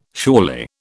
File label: "surely", British